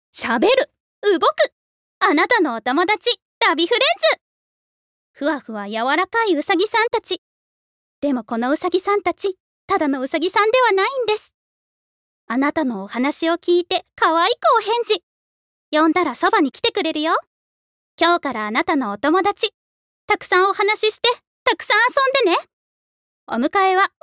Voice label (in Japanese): Narration Omocha CM